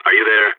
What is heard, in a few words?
request report, balkan; vo